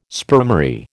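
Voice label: "spermary", American English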